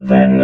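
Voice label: "then", robot voice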